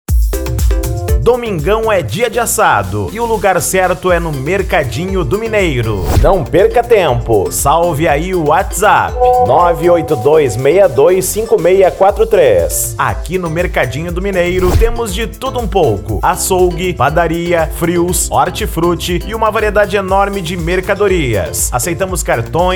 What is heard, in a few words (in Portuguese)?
Locutor; Mercadinho Do Mineiro Americano SP